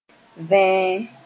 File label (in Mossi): "vẽe", moore